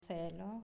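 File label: "Cielo", Italian, female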